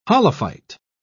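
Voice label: "holophyte", British English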